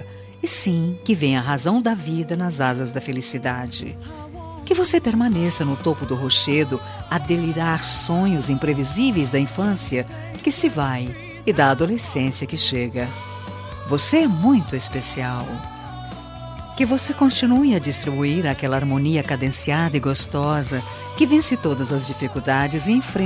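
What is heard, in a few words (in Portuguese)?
ANIVERSARIO 15 ANOS FILHA female, telemensagem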